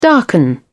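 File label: "darken", British English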